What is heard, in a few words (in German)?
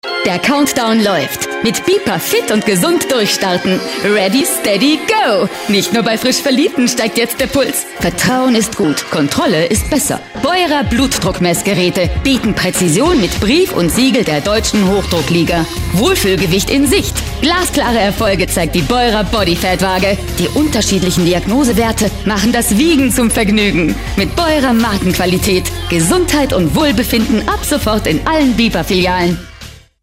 werbespot gesundheitsprodukte; beurer